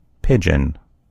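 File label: "pigeon", American English; gcompris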